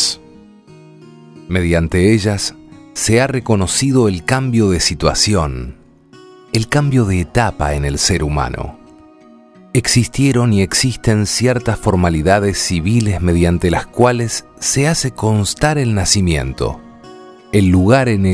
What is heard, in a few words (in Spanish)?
ceremonia de proteccion con musica